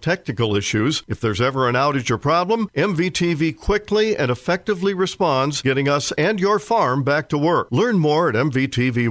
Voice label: Wireless spot